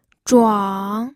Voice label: "zhuang3", pinyin